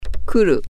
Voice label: arrive, japanese